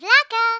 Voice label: stupid, Speech, Greek; Worms Armageddon